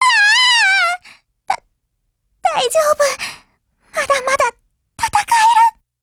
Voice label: BREAK, JP; StenMK2Mod